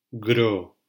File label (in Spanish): "gro", silabas